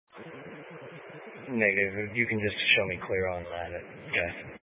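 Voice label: Sheriff Dispatch, Scanner audio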